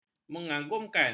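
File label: "mengagumkan", Malay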